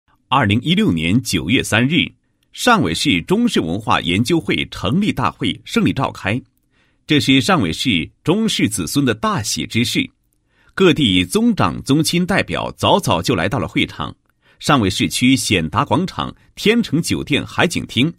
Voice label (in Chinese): 男, 解说; 钟氏文化研究会成立大会